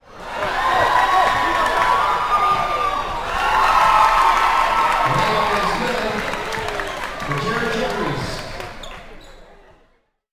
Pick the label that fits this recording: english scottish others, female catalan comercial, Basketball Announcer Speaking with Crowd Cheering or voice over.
Basketball Announcer Speaking with Crowd Cheering